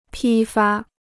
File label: "批发", Chinese